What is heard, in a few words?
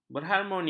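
"berharmoni", Malay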